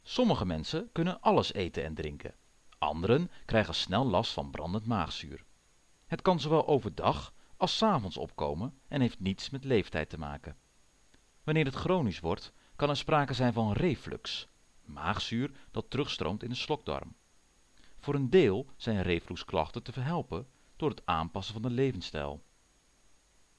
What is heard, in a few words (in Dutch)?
Rustige promo